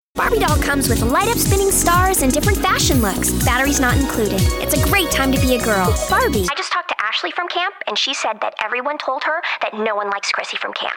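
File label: US commercial teen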